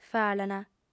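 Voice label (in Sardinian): "falant", female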